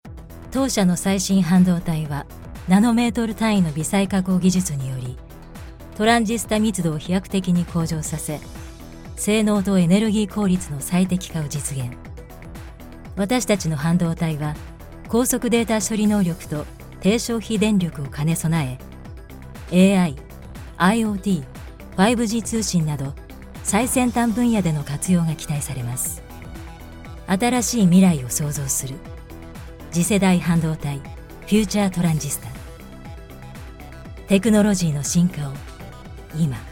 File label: Japanese Corporate